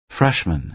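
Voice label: "freshman", American English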